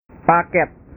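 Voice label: "paket", Indonesian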